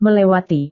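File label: "melewati", Indonesian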